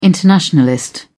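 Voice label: "internationalist", British English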